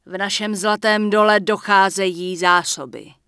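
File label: Human Female Official NPC Farewell, Czech; wowpreklad; czwow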